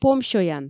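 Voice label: "помсёян", Komi, female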